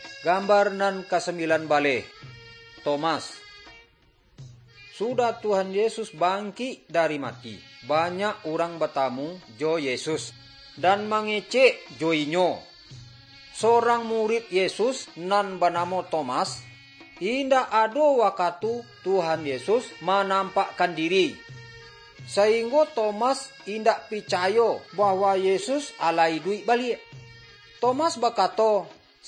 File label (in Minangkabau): good news minangkabau